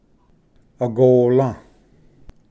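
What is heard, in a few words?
"agola", Cherokee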